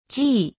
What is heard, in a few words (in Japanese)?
"ち", 日本語; 基礎日語五十音聽力